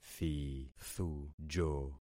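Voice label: "thithujo", concatenated syllables; Affixing experiment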